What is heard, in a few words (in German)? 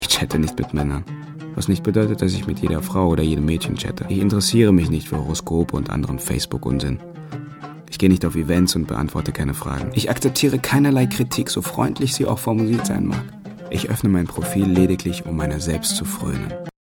Hörspiel; Deutschlandradio